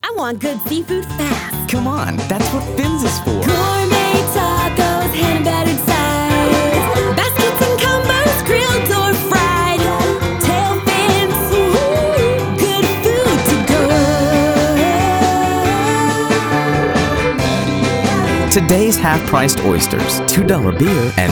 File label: Radio; Tailfins